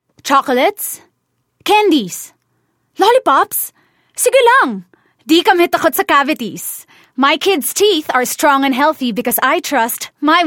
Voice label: Taglish, Confident, Enthusiastic